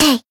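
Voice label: attack, Japanese; nagi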